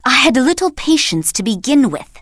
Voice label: Blood Elf Female Standard Pissed

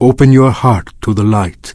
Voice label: Draenei Male Greeting

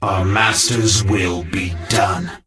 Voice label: Death Knight Male Low Greeting; Scourge

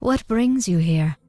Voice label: Night Elf Female Standard NPC Greeting

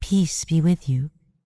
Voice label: Night Elf Female Priestess NPC Greeting

